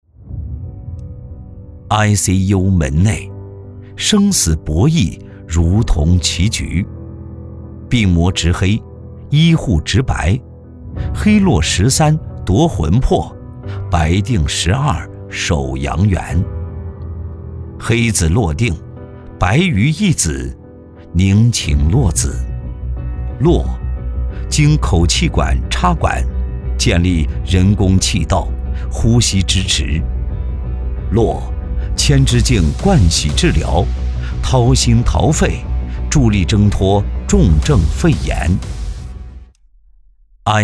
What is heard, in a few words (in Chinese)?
男, 走心旁白; ICU门内